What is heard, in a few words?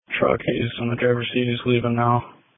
Police Tactical Encrypted